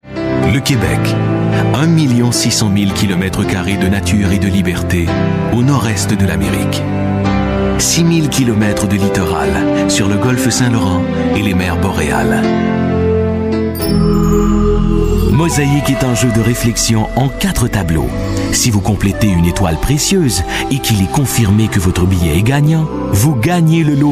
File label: french voice over male narrator